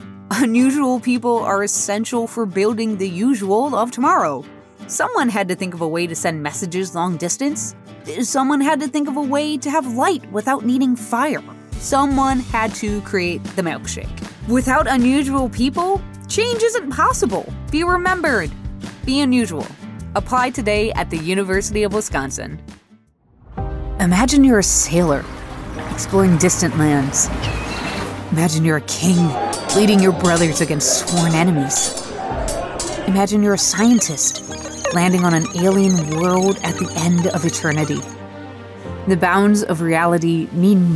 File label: Commercial